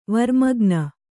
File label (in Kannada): "ವರ್ಮಗ್ನ", kannada